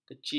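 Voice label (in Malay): "keci", Malay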